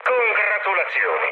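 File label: Italian player killed enemy mood high, speech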